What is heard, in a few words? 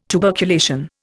"tuberculation", Indian